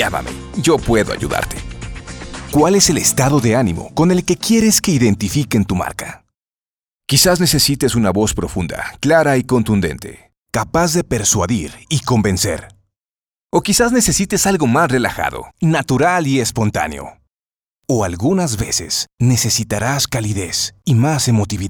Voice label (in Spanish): locutor mexicano